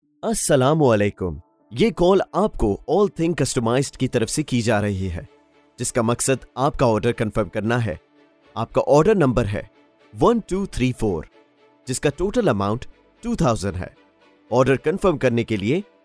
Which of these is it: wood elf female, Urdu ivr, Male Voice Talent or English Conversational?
Urdu ivr